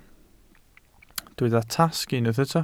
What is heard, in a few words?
"tasg", Welsh